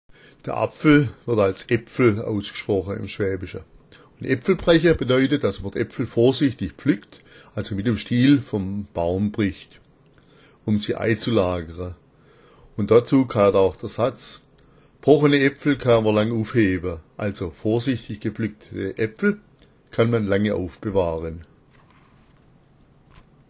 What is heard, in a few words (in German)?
epfelbrecha, schwaebisch